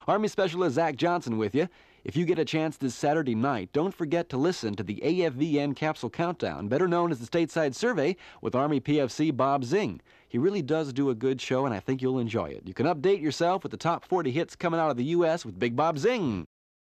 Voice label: Radio Spot: Postal Etiquette Advisory And Bumper Music; Mono